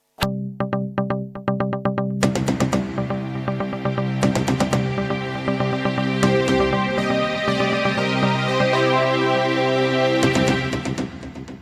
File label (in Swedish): Radionyheter